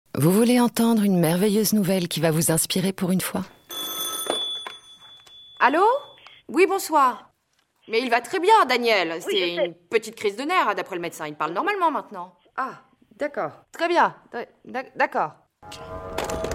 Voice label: Drama